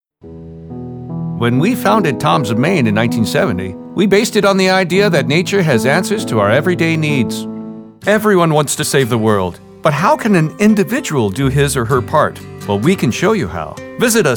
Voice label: Narration